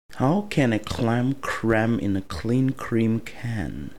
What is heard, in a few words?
clam cream can, tongue twisters